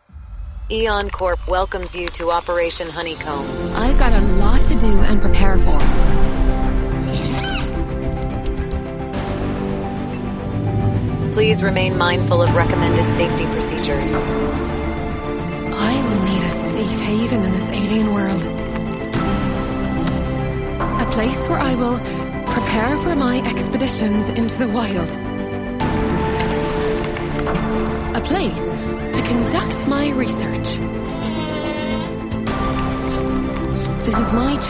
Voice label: english international movie trailers